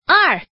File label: Woman